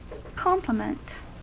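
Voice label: "compliment", US English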